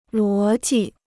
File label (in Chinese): "逻辑", Chinese